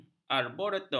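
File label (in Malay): "arboretum", Malay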